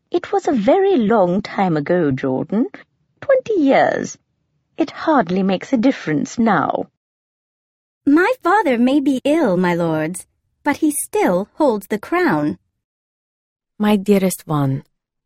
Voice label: Accents and Characters